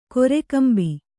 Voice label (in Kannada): "ಕೊರೆಕಂಬಿ", Kannada